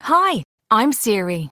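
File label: Irish English; iOS Sounds; maeve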